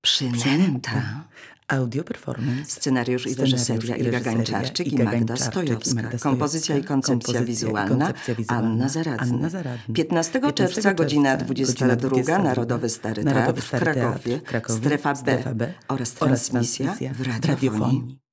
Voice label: SPOT